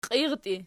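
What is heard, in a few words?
"qiirtii" nominative, Archi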